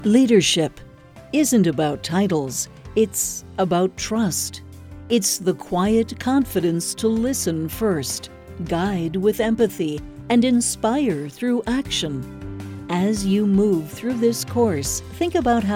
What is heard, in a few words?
eLearning